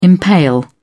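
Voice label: "impale", British English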